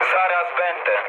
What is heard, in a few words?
near waypoint mood med, speech pol; War Thunder